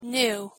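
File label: pronunciation "new", English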